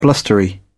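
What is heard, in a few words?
"blustery", British English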